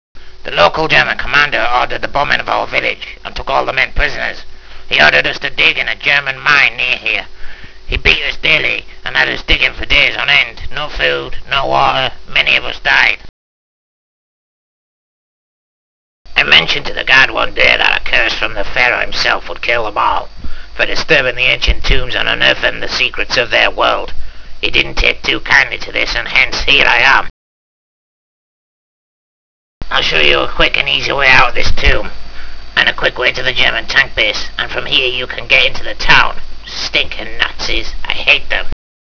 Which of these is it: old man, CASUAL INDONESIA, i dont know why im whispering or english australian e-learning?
old man